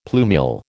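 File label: "plumule", American English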